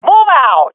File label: round start, swat fem; vo; Counter Strike Model Pack; CS:GO